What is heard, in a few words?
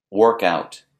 "work out", English